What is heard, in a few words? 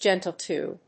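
"gentle to", English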